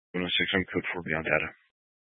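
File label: Sheriff Dispatch, Scanner audio recording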